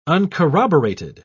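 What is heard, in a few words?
"uncorroborated", British English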